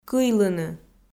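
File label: "кыйлыны" Komi, female; kpv audio dict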